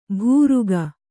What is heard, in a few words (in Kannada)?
"ಬುರುಕ", Kannada